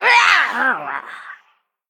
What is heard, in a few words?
Female Elemental Death